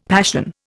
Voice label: "passion", Indian; Dictionary

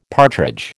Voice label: "partridge", American

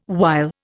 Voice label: "while", Indian

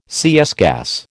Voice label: "CS gas", American

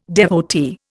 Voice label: "devotee", Indian

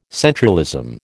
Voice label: "centralism", American

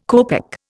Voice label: "copeck", Indian

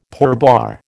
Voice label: "pourboire", American

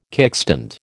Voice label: "kickstand", American

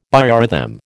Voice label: "biorhythm", American

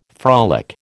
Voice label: "frolic", American

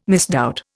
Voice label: "misdoubt", Indian

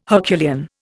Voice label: "Herculean", Indian

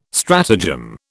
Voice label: "stratagem", British

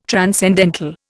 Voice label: "transcendental", Indian

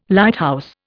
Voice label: "Lighthouse", Indian

